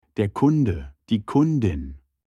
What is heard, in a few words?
customer in german